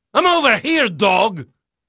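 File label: Portuguese male, voice; Pirates Of The Caribbean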